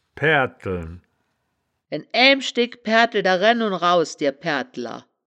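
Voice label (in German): "paeaerdlen", wittlicher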